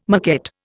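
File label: "maquette", Indian English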